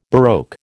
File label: "baroque", American